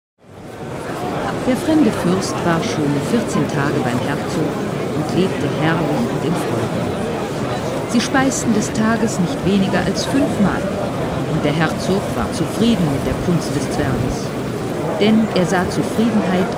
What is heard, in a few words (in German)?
Hoerbuch; terzo Institut